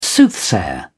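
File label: "soothsayer", British English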